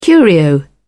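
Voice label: "curio", British English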